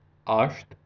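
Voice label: "asht", Ossetian, male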